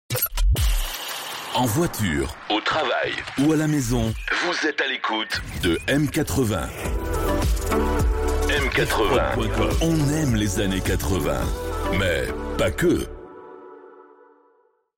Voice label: Sweep En voiture au travail

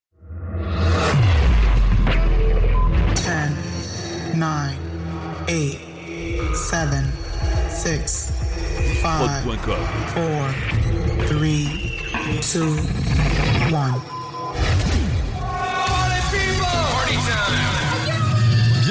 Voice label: Intro Monthlymix; Dj H

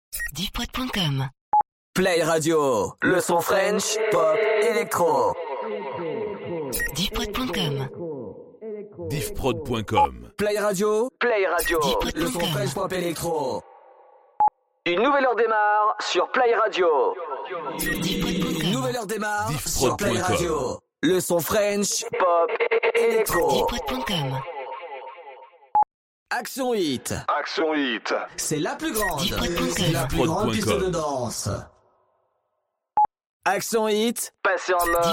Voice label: Drops A cappellas, habillage

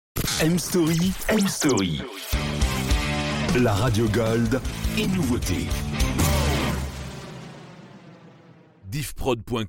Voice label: Sweep Radio gold et nouveautes

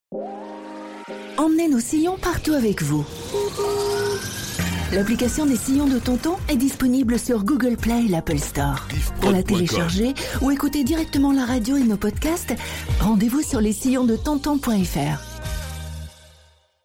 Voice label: Jingle Applis; Les Sillons de tonton

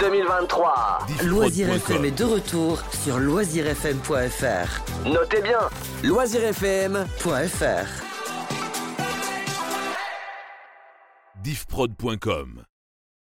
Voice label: Promo Historique Radio; Loisirs FM

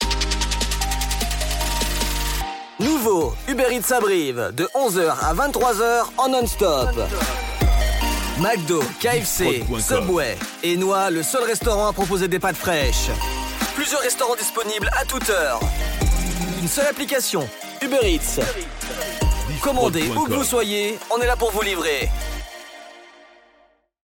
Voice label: Spot, publicite; Uber Eats Brive

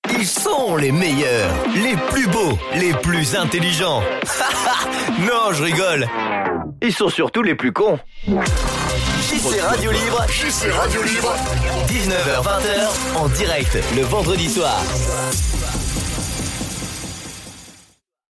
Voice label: Radio Libre Jingle Les plus cons

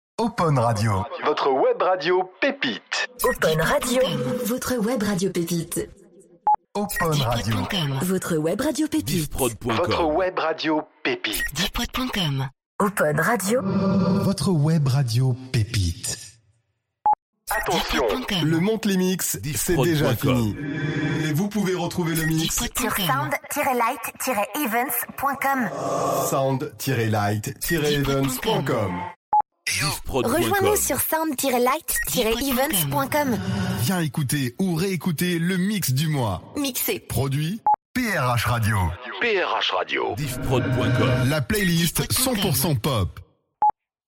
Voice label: Drops A cappellas, habillage

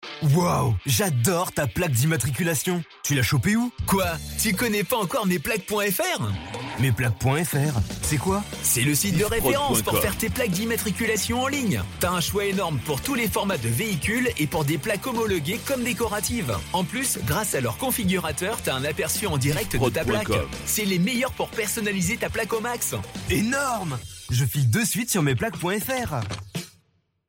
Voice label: Spot, publicite; Road FM; Mesplaquesfr

